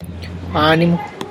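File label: Tlacochahuaya Zapotec; soul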